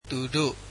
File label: "they", Burmese